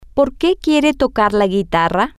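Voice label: Spanish, why do you want to play the guitar, female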